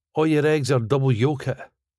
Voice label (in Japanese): Ayer eggs are double yoakit スコットランド英語